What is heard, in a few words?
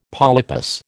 "polypus", American